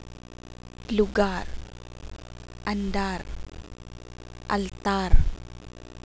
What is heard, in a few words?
r final, Tagalog consonants